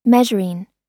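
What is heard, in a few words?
"measuring", American English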